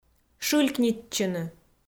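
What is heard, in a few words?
"шылькнитчыны", Komi, female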